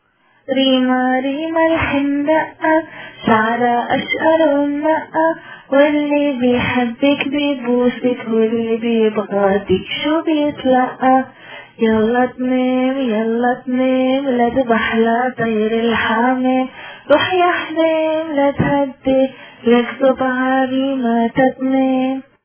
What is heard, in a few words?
sleep arabic